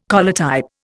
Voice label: "collotype", Indian